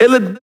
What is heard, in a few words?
vocal shot